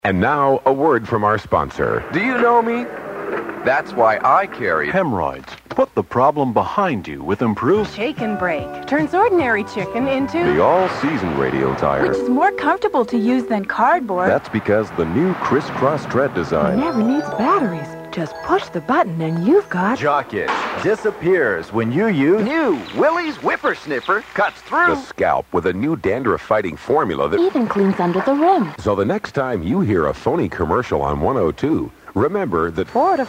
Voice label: Promo; CFNY; 1987 Counterfeit commercials contest Promo